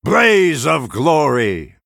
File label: Male Night Elf Attack